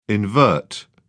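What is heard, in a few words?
"invert", British English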